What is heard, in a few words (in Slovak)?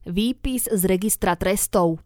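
"vypis z registra trestov", Slovak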